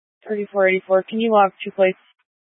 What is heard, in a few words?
Police Dispatch, scanner; Police 1 Dispatch